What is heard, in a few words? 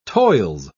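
"toils", British English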